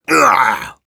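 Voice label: negative vocalization, Russian; Engineer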